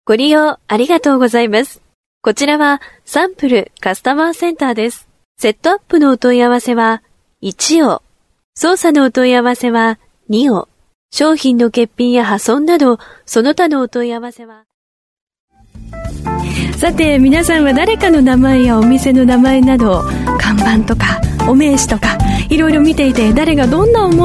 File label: Japanese Professional female, Foreign Voice Talent